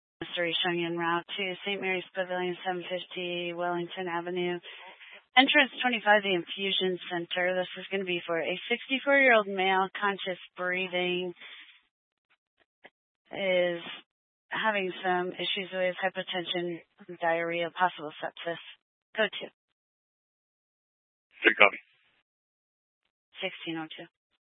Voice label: Fire Department Dispatch, scanner; Grand Junction

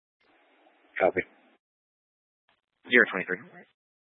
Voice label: Police Department Dispatch; Grand Junction

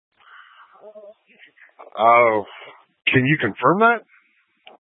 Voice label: Incident Tac 3, Scanner audio recording

